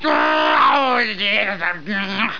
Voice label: gibberish